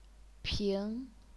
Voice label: "ping2", chinese pinyin